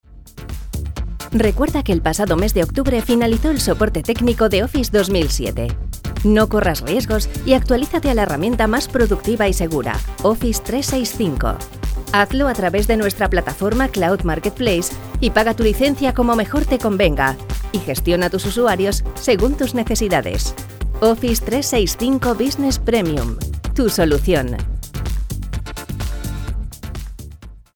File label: mar locutora publicidad spanish voiceover